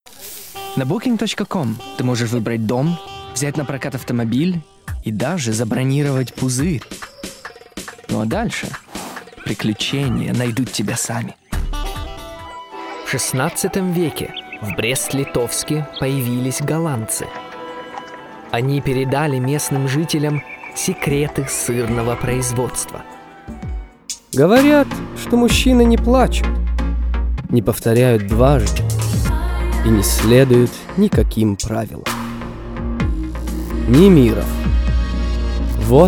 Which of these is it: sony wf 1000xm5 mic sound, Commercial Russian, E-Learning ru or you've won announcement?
Commercial Russian